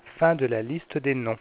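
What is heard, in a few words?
no more names, French, Voice Sounds; Samsung SGH-i310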